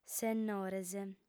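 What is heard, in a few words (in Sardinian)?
"sennores", female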